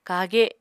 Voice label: "kage", Apatani

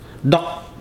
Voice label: "enter", Ibibio

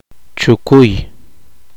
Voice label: poqomchi; variedad de ma z

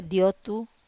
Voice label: "otu", Wamesa

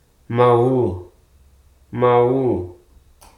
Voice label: "harsh", Hruso

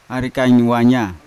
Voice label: "arikain wanya", child, Yawa

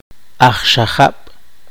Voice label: poqomchi; zapatero

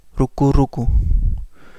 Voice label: Kapingamarangi; gold-lined sea beam

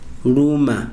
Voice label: "bite", Chikunda

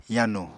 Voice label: "yanu", Apatani

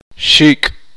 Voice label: "pluma", Uspanteko

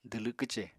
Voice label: "dwlw kwce", Apatani

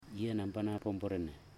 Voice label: my black pig, Ipili; Ambua

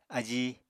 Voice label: "ají", Apatani

